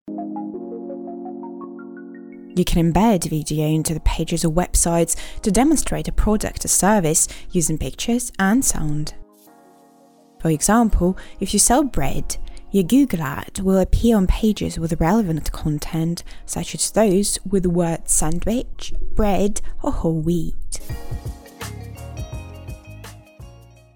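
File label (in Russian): английский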